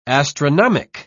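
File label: "astronomic", British English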